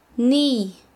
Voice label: Chanka, quechua; decir

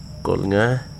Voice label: "new", Wahgi; lae unitech

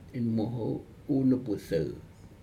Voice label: inmoho onubudou, February, Aneityum

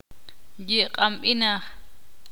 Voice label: ixil; inmaduro

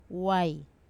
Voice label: "wai" rope, Wamesa

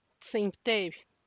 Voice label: sixteen, Santiago Matatlán Zapotec; (Spanish) dieciséis

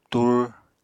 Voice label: "tur", apatani

